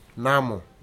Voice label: mosquito, futuna aniwa